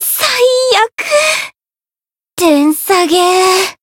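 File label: win barely, Japanese; millecha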